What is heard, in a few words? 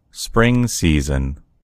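"spring season", American English; gcompris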